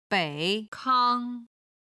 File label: "bei3 kang1 ying1", Chinese